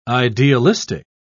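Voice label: "idealistic", British English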